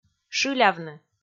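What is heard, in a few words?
"шылявны", Komi, female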